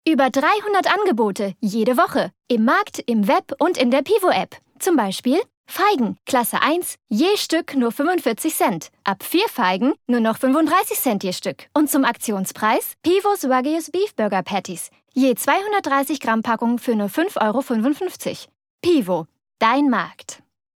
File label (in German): werbung; Muttersprache Deutsch